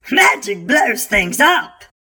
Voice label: npc orc mage dealer